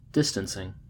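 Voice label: "distancing", American English; pronunciations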